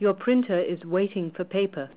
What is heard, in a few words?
manual feed, printer, english